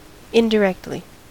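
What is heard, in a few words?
"indirectly", US English; pronunciations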